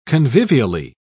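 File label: "convivially", British English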